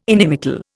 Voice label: "inimical", Indian

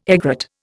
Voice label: "egret", Indian

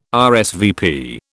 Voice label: "RSVP", British

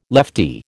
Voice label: "leftie", American

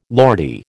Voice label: "Lordy", American

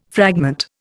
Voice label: "fragment", Indian

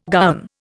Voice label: "gowan", Indian

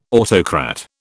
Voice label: "autocrat", British

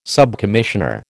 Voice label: "subcommissioner", American

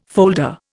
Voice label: "folder", Indian

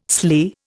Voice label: "sley", Indian

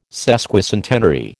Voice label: "sesquicentenary", American

